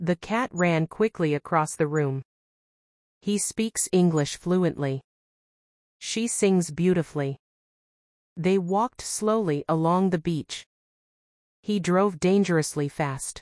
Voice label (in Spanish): ejemplos adverbios ingles